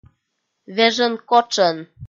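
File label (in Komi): "вежӧн-котшӧн", Komi, female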